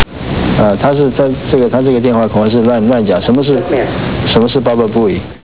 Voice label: chinese bababooey